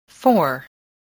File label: "fore", English voice, spelling